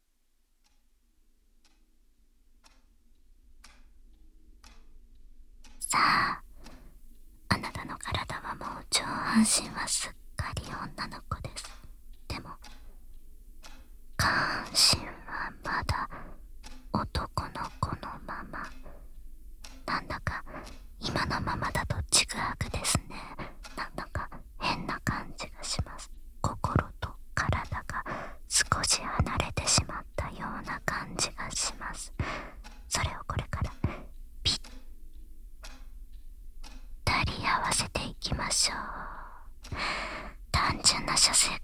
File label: ASMR; メスイキ絶頂トレーニング～調教ドライオーガズム編～